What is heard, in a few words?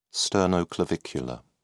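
"sternoclavicular", British English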